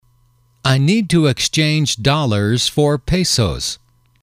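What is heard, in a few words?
"I need to exchange X for Y", English, male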